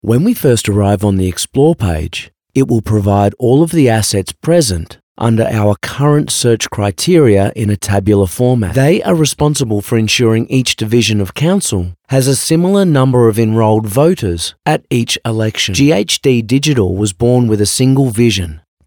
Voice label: Corporate